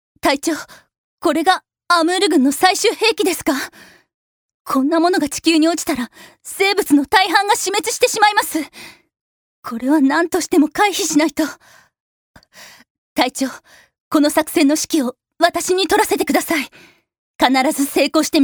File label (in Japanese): f kakkoii